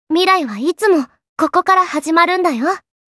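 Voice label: Mirai Kara, Japanese, kawaii voice; Positive; Oyu Kawaii Voice Sample Pack V1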